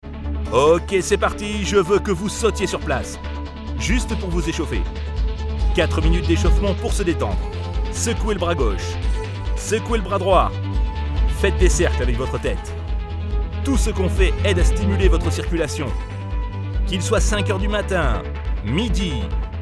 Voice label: Voix Medium dynamique Coaching Cardio

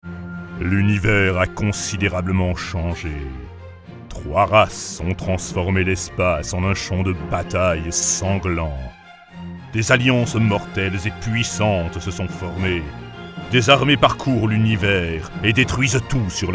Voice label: Voix grave cassee, Jeux Video; Universe

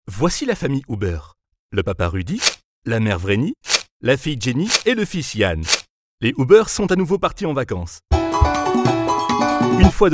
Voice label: Voix medium rigolo; DLNA

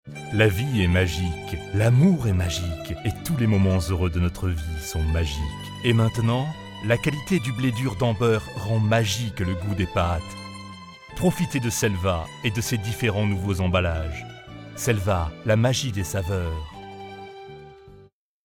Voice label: Voix medium douce Pub Pates; Selva

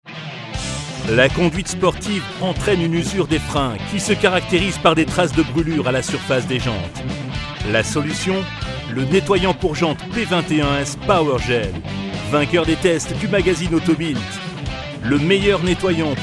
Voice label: voix grave forte Pub Nettoyant Jantes

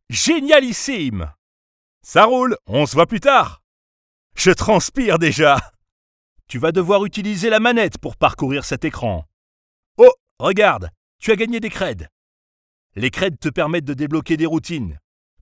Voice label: voix medium boomy; xBox360 Dance

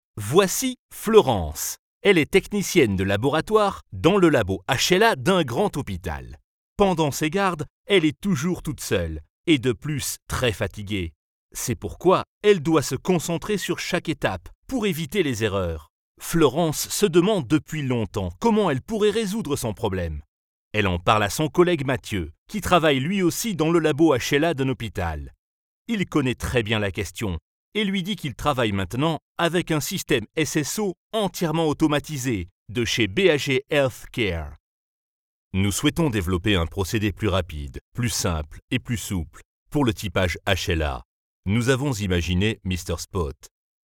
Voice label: Voix grave Souriante puis explicative; Pres Produit; Mr Spot

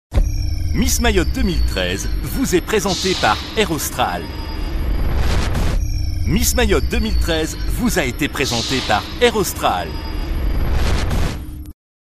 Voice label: Voix grave souriante Billboard; Miss Mayotte 2013 Final

